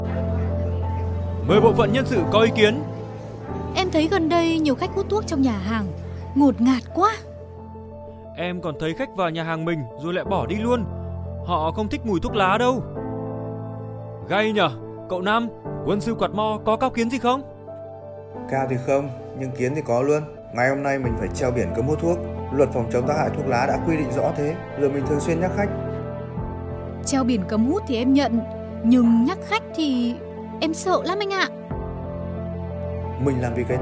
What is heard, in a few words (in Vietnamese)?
giọng bắc; nhà hàng không khói thuốc; clip phat thanh ve phong chong tac hai cua thuoc la 2024